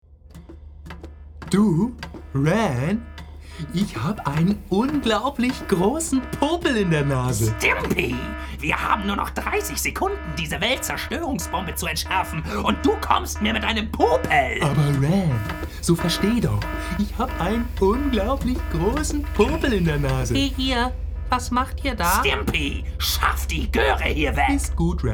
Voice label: german cartoon